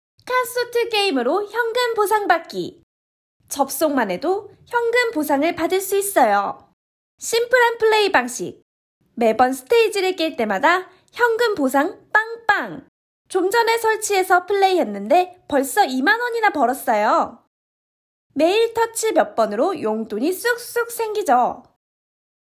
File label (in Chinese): 韩籍韩语女